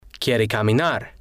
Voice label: quiere caminar, Spanish